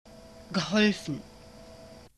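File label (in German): "geholfen", German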